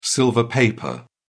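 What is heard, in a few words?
"silver paper", British English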